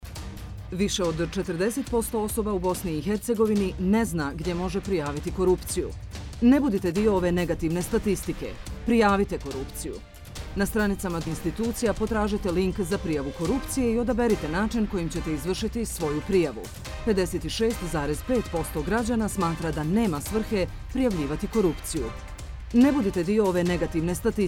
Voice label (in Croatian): Prijavite korupciju - radio jingle; Prijava korupcije